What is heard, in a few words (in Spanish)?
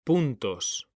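"puntos", male; mekanta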